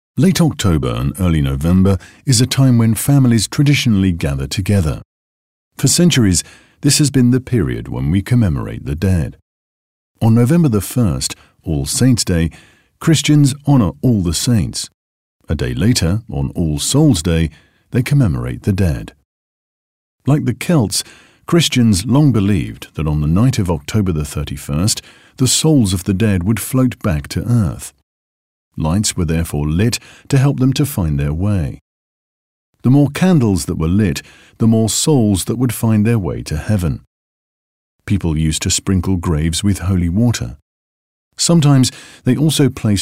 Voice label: Audio Guide Samples; Parcum; winter